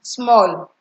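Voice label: "small", Nigerian Pidgin, female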